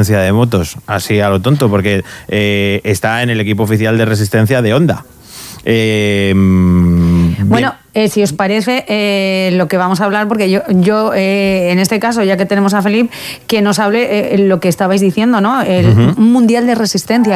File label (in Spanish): entrevista radio; honda resistencia; radio sirena COPE